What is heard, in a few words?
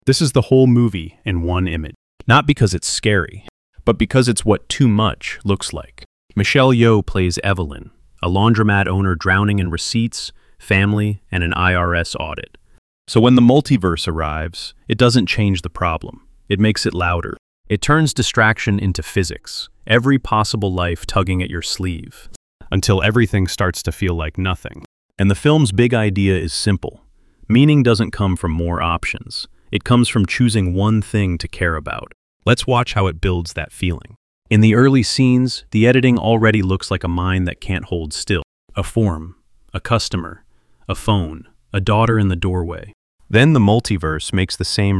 voiceover